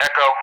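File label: "Echo", English; Alphabet; DCS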